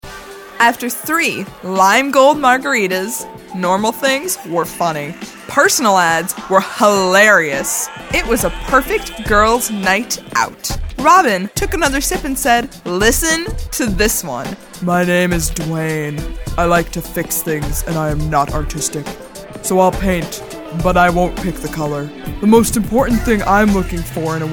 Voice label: Narrative Demo